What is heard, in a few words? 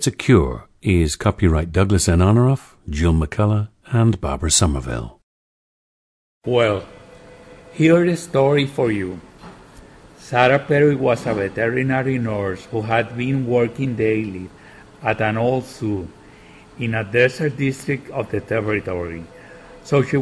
puerto rico